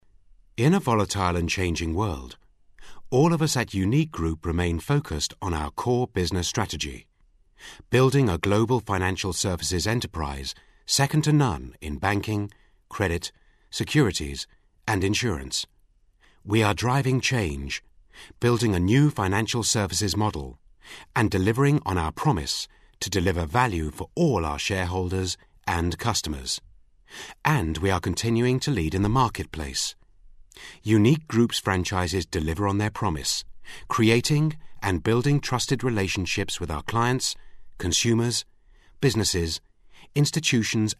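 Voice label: English corporate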